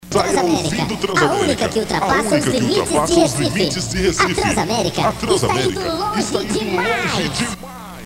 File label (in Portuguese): vinheta; Transamerica FM Recife PE; limites